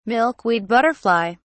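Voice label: "milkweed butterfly", British English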